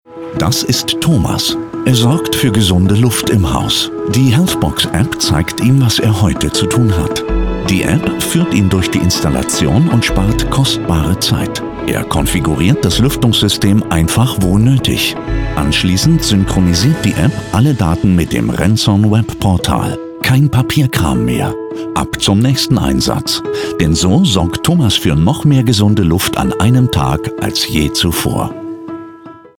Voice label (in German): videopraesenation; RENSON HEALTHBOX APP